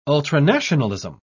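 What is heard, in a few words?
"ultranationalism", British English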